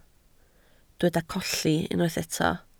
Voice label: "colli", Welsh